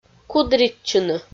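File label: "кудритчыны", Komi, female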